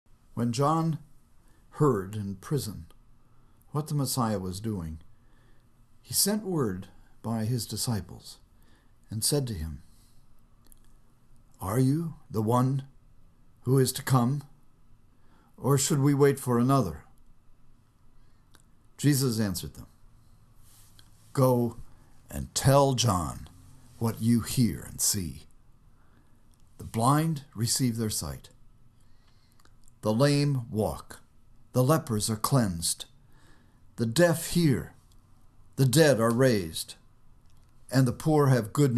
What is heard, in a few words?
storytelling